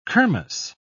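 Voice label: "kirmess", British English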